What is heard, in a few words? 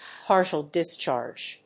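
"partial-discharge", English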